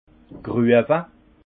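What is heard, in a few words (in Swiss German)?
"gruewa", alsace Haut-Rhin; vin; marcotter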